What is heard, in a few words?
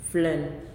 "Moon", Nalik